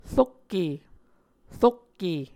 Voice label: "rokke", muscogee; A Dictionary of Creek/Muskogee; SIL International

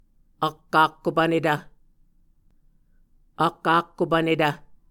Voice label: "ak akkopvnetv", Muscogee

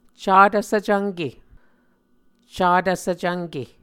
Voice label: "catv svcvnke", Muscogee; Mvskoke Words with Voicing Consonants; A Dictionary of Creek/Muskogee; SIL International; Onvkv Ohhvyayices

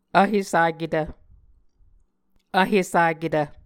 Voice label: "vhesaketv", Muscogee

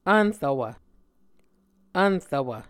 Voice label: "vnrvwv", Muscogee

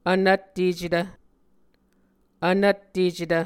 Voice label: "vnvttecetv", Muscogee

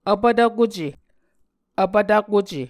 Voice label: "vpvtvkuce", Muscogee

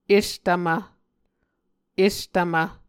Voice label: "estvmv", Muscogee

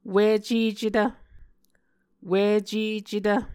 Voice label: "wicecetv", Muscogee

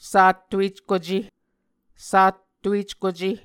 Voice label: "sattueckuce", Muscogee; Mvskoke Words with Voicing Consonants; Martin, Jack B., and Margaret McKane Mauldin. 2023. A Dictionary of Creek/Muskogee

